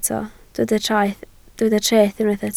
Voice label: "treth", Welsh

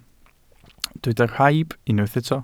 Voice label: "rhaib", welsh

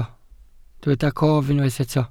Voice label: "cof", Welsh

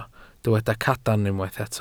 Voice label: "cadarn", Welsh

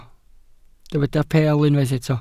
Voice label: "peel", Welsh

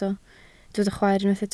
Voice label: "chwaer", Welsh

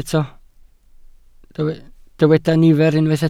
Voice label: "nifer", Welsh

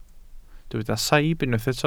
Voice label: "saib", Welsh

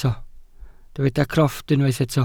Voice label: "crofft", welsh

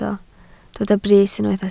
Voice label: "brys", Welsh

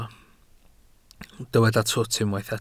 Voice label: "twt", Welsh

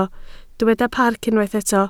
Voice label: "parc", Welsh

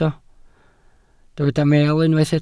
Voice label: "meel", Welsh

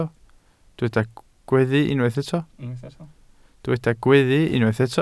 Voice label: "gweddu", Welsh

